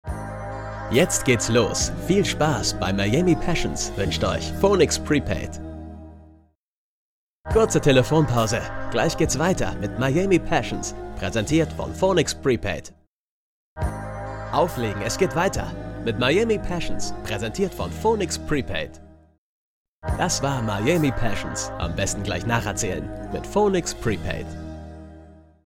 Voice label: werbung off werbetrenner mobilfunk

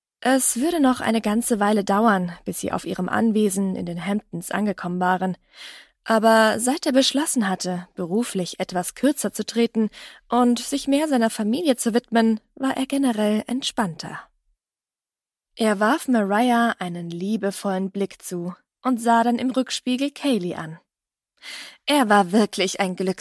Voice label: Hoerbuch; DarkTrust

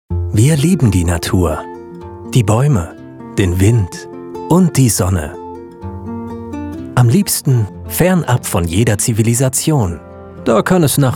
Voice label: Sprachdemo Werbespot Solarstrom

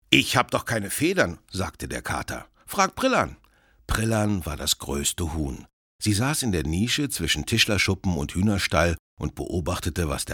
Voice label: erzaehlung

